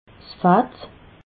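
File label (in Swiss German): "fatt", Alsatian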